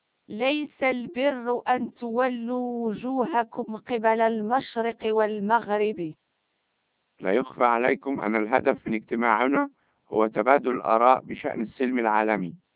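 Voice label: speech CODEC2 3200 bps, Arabic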